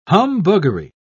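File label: "humbuggery", English, British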